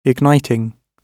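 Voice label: "igniting", British English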